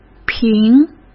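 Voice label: "评", Mandarin Chinese; chinese flashcards; hsk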